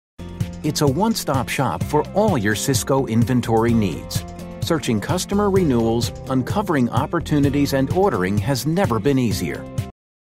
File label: Explainer Training Informative Practical